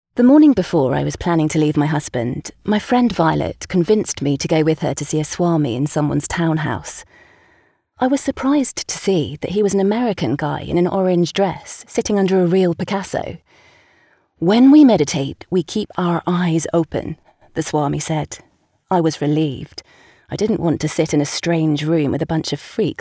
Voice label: narrative